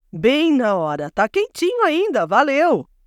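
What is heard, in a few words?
npc job female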